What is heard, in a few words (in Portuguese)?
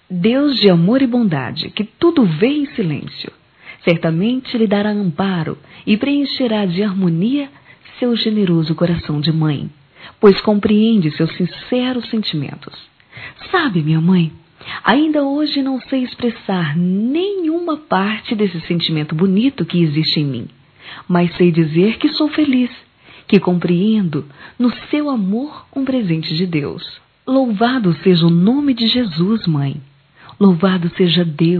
filha para mãe evangelica, telemensagens, Dia das Mães; Telemensagens 2017